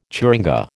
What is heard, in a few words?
"churinga", American